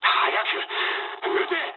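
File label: Japanese attack position mood med; War Thunder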